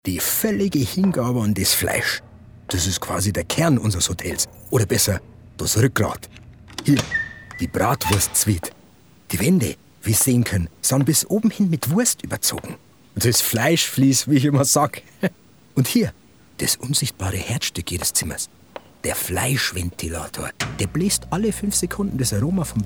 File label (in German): akzent Bayrisch